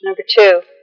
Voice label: "number two", female; numbers and letters

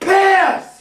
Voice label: "piss", tourettes; tourettes guy

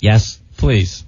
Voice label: yes please, male

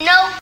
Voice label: "no", kid; Voice; expletives

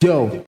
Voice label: "yo", echo

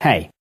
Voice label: "hey" male

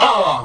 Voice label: "uh", male; ooh ahh; uh um

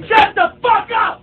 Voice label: shut the fuck up male; Voice; expletives

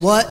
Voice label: "what", Voice; ooh ahh

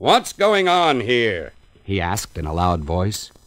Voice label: what's going on here he asked in a loud voice

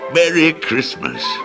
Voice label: merry christmas male; Voice; xmas

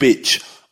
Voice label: "bitch", expletives

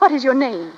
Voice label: what is your name, female; questions

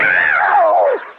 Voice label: "ow" witch

